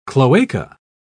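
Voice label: "cloaca", British English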